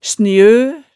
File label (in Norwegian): "snjø", hemsedalsmaalet